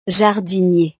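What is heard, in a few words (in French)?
"jardinier", French; profesiones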